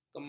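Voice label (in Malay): "kemam", Malay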